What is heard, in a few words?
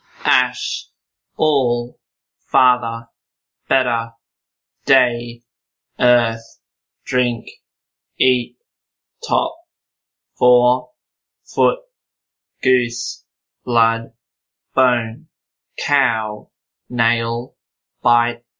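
vowels en-au, Australian English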